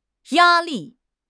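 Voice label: "ya1 li4", Mandarin Chinese; HSK4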